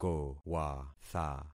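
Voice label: "kowatha", concatenated syllables; Affixing experiment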